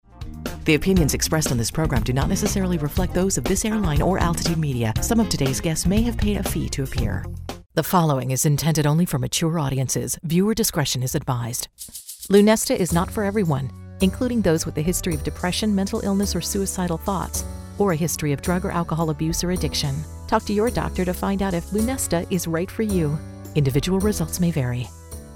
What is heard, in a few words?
legal VO